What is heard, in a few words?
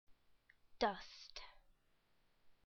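"dust", English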